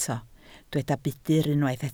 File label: "budr", Welsh